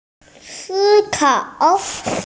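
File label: "think", hearing impaired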